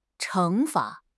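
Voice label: "惩罚", Chinese; HSK6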